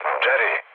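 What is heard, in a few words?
Serbian enemy detected mood low; speech; War Thunder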